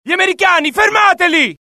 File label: alert, dialogue IT; mohaa; attack